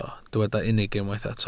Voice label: "unig", welsh

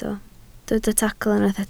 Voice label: "tacl", Welsh

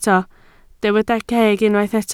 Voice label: "ceg", Welsh

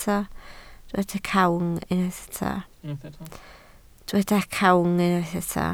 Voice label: "cawg", Welsh

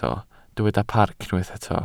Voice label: "parc", Welsh

